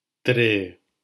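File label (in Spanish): "tre" Spanish syllable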